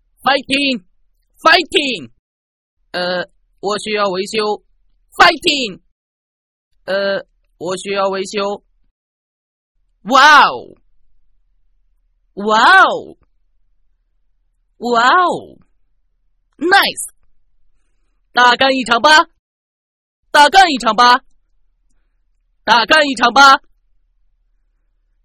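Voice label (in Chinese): 国语 男声 角色 机器人 机器游戏配音 科技感 素人; 机器人查理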